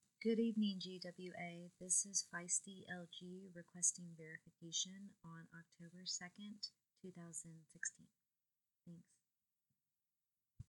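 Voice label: Female Verification Request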